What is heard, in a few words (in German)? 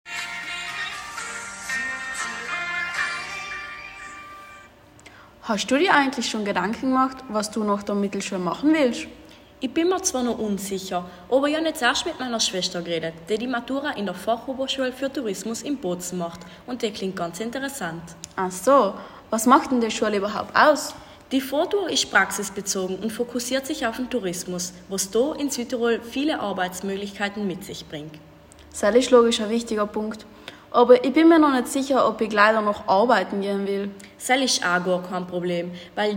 Radio Werbespot; FoTour; Werbekonzepte FO